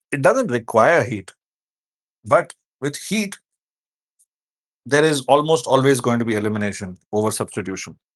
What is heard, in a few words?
voice message; Haloalkanes 5